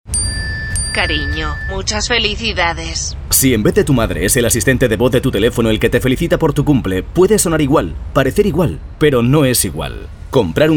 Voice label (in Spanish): Masculina; Sonoarte